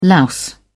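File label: "louse", British English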